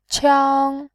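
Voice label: "qiang", Mandarin, tone 1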